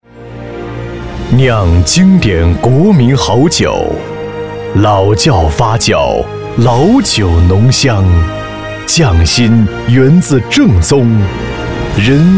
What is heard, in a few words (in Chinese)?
男 广告 大气; 酒类; 人民好酒央视品牌